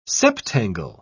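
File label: "septangle", British English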